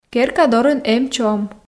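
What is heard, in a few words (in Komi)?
керка дорын эм чом, Komi, female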